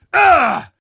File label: pain, combine soldier; hl2